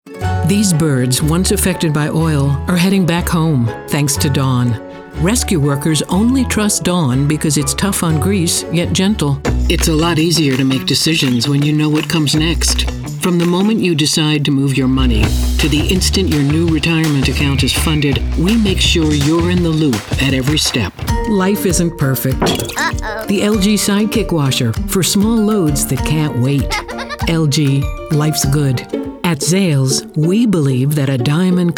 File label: Commercial